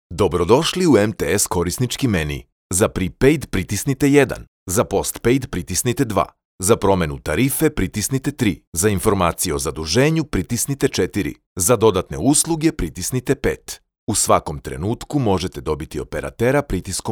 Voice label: male serbian